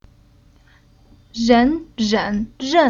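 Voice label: "Ren", Chinese syllable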